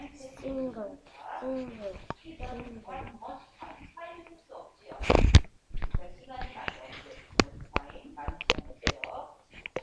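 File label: "singer", spoken word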